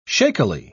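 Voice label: "shakily", British English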